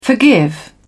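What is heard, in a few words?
"forgive", British English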